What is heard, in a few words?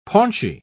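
"paunchy", British English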